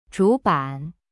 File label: "zhu3ban3", Chinese; cyberchinese